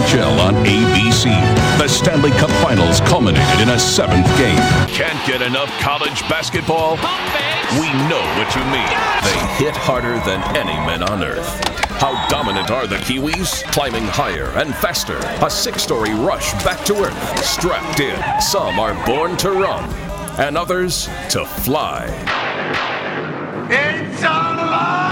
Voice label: Sports Promo